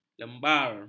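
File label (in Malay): "lembar", Malay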